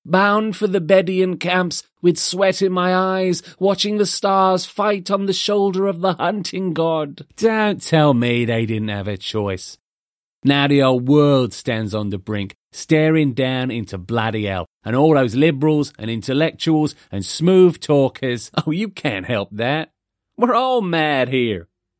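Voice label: English, male, characters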